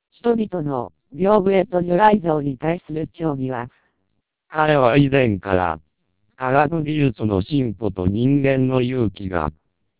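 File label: TWELP 400 bps Vocoder, Japanese speech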